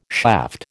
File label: "shaft", American